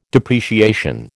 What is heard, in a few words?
"depreciation", American